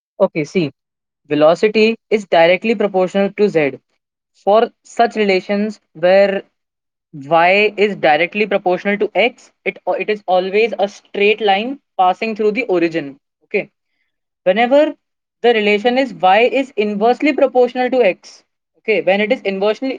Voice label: voice message